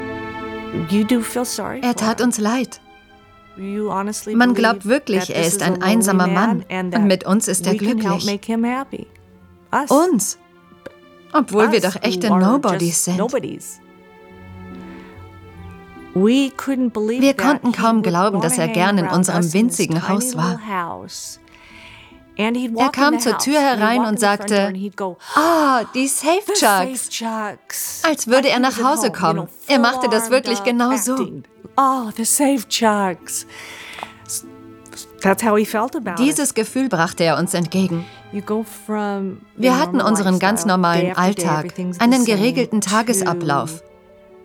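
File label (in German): Kommentar, Neutral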